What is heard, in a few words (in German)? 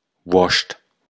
Platt Wurst